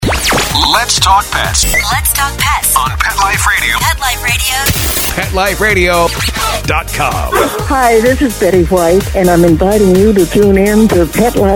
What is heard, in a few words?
Generic Sweeper; PetExpo